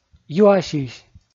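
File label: "юасьысь", Komi, male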